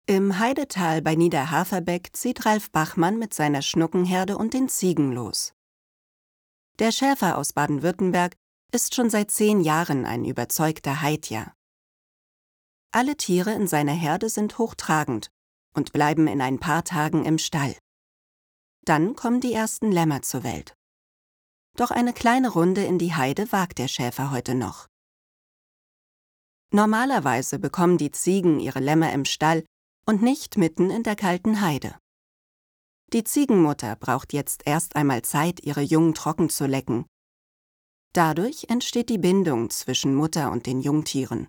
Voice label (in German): sprecherin reportage